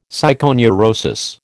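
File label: "psychoneurosis", American English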